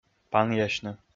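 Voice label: "панъясьны", Komi, male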